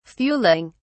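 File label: "fuelling", British English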